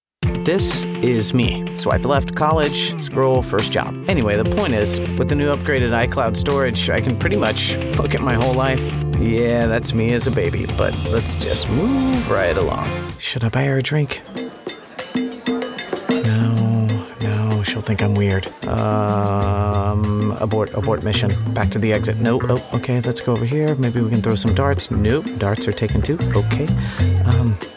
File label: american voice natural